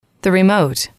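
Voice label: "remote", English, female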